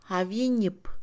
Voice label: Mixe, Mujer; hace tiempo; INALI

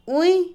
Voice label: Otomí, mujer; soñar; INALI

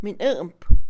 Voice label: engaño, Mixe, Mujer; Emociones; INALI

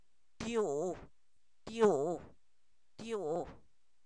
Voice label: cuarenta, Zapoteco, san miguel albarradas; numeros

